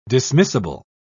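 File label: "dismissible", English, British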